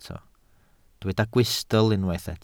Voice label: "gwystl", Welsh